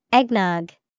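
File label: eggnog, English vocabulary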